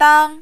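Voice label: "dang", Mandarin, tone 1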